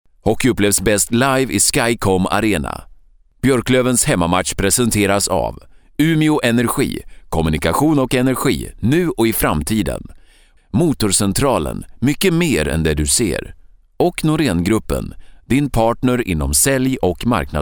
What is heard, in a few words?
swedish